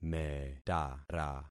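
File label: "metara", concatenated syllables; Affixing experiment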